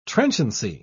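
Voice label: "trenchancy", British English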